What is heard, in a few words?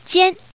Woman